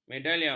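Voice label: "medalion", Malay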